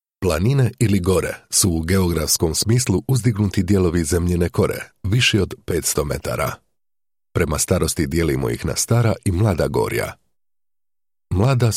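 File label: Croatian male Docu; voice over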